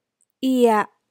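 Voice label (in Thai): "เอียะ", Thai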